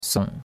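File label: "song3", Mandarin Chinese; Cours-de-Chinois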